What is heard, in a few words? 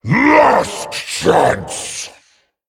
Male Dragon Attack